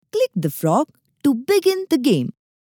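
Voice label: Click Frog voice over, English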